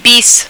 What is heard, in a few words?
"bis", French